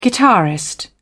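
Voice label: "guitarist", British English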